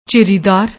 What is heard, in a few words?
"jhiridar", Punjabi